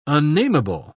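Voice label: "unnamable", British English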